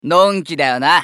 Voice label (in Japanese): スカウト男 移動